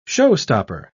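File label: "show-stopper", British English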